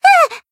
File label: damaged, jp; phiro